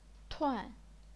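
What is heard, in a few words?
"tuan", chinese pinyin, fourth tone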